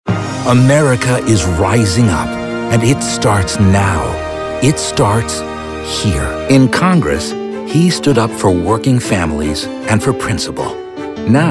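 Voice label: political, voice actor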